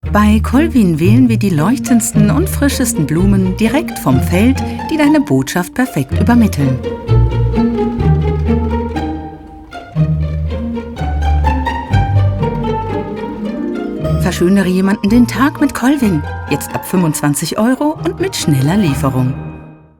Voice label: commercial, German, female